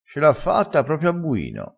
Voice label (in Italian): "a buino", Crespinese